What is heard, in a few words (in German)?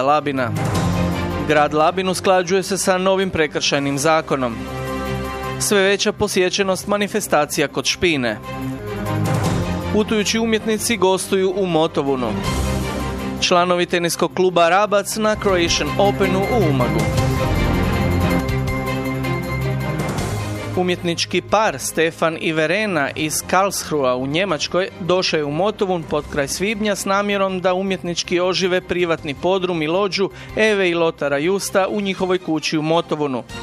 news-radio